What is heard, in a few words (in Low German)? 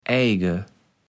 "Eige", kaevels platt